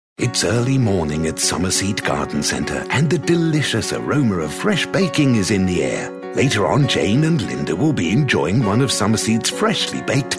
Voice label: radio commercials

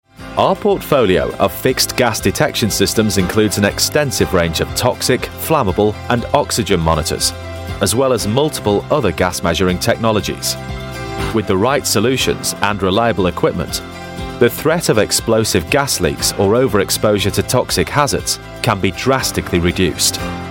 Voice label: corporate narration

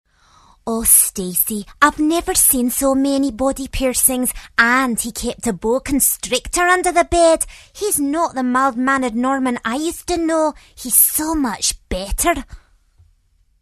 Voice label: scottish

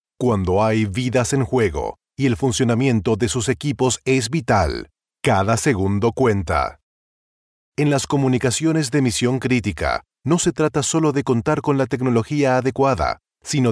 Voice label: spanish male corporate; motorola

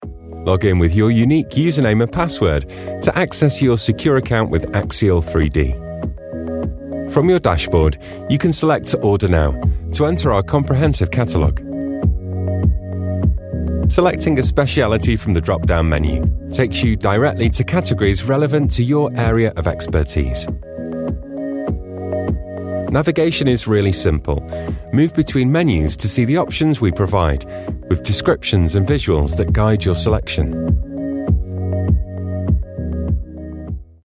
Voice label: explainer

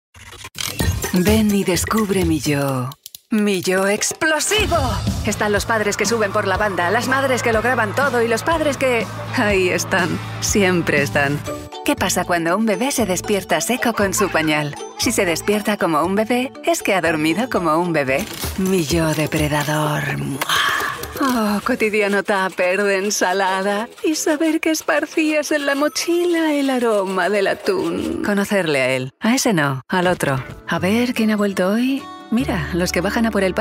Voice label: spanish female commercial

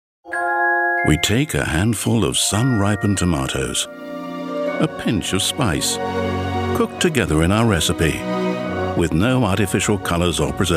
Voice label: commercial montage

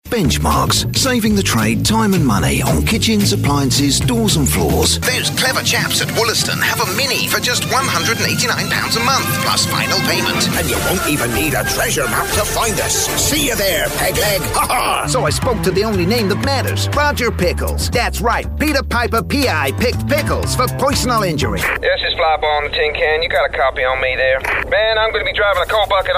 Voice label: character

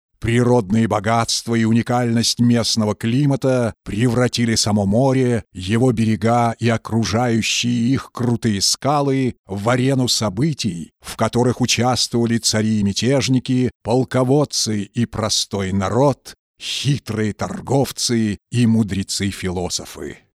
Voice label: russian voice documentary

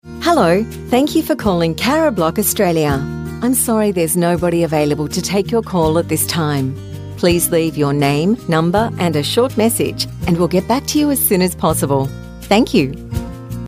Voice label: telephone

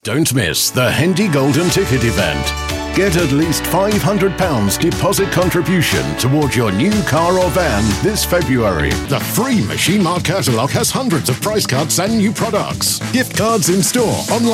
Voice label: commercials

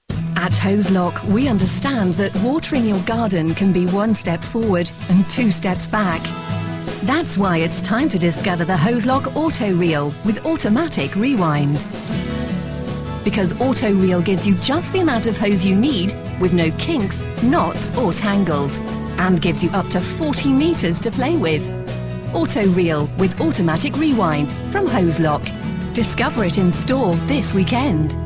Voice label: tv commercial; hozelock

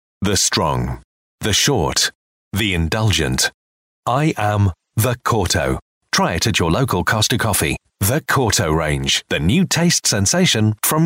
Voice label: promos

